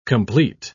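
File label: "compleat", British English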